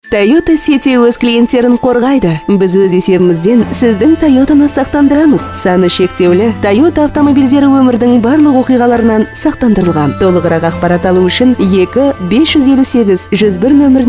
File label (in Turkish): Kazakca Kadin; seslendirme; kadin sesler